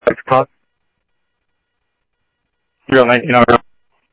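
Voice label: Scottsdale police department, Scottsdale Police, scanner; SCTSDLE PD - PD2; ThompsonPeakHigh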